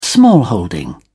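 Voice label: "smallholding", English, British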